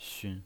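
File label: "xun", pinyin voice, neutral tone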